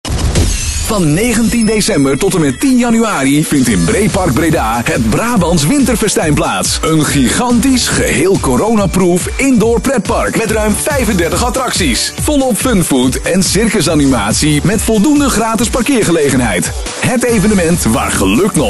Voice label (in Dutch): Radiospot; Brabants Winterfestijn 2020